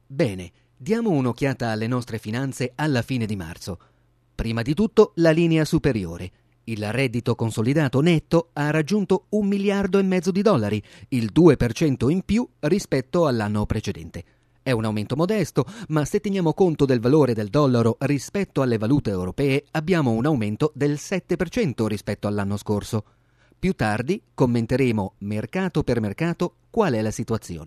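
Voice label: Italian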